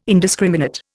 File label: "indiscriminate", Indian